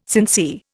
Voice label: "sincere", Indian